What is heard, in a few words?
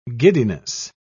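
"giddiness", British English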